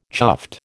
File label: "chuffed", American